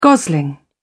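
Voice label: "gosling", English, British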